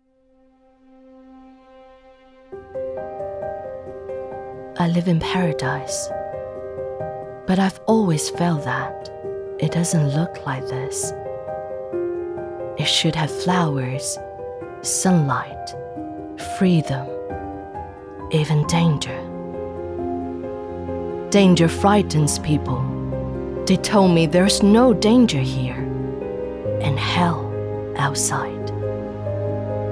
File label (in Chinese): 英文; 天堂之泉英文样音